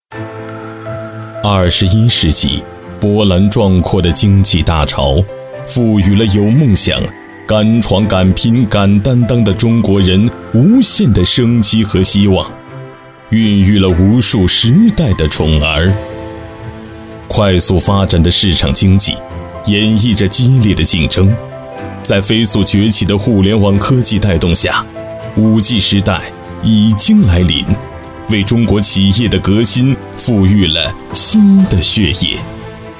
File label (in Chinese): 男 专题类